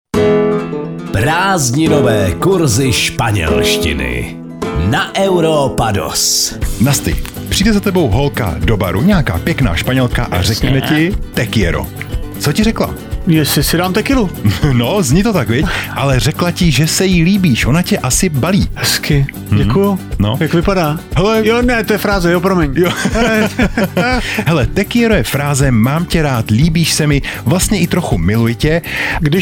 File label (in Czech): spanelstina spanelstina